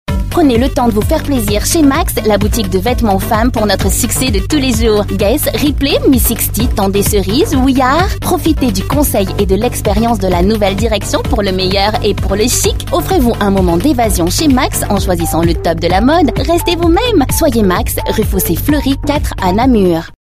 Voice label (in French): pub, voix off; Macks